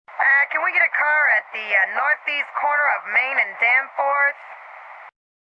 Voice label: Taxi Get A Car, Taxi (or other )dispatch radio, female, 'can we get a car...'; some processing & radio static (human voice speaking); VoiceBox